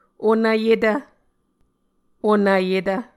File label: "onvyetv", Muscogee